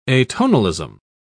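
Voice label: "atonalism", English, uk British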